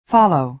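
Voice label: "follow", American English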